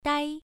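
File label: "dai" Mandarin, first tone, pronunciation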